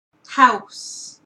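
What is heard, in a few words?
"house", Canadian English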